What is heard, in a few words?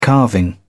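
"carving", English, British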